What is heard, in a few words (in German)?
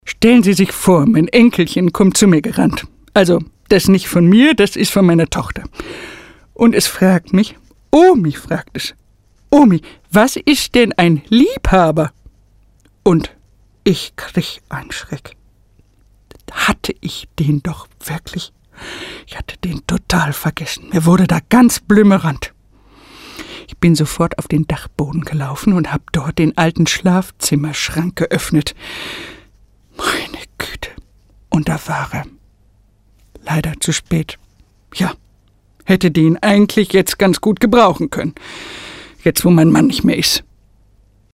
comedy oma